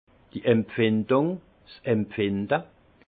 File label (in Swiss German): "empfindung", alsace; vin; sensation